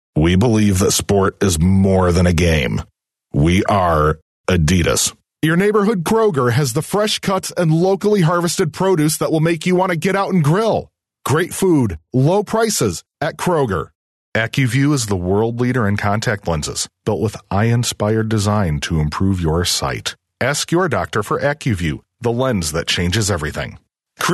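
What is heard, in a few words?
English US male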